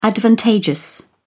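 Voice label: "advantageous", British English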